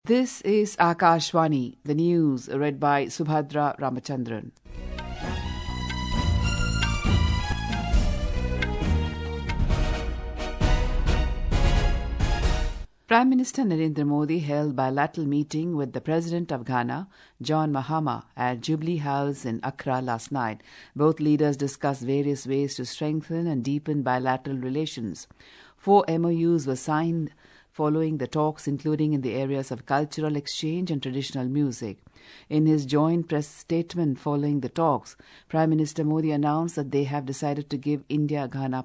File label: English; President Volodymyr Zelensky; Ukraine